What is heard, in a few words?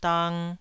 "dang2", Taiwanese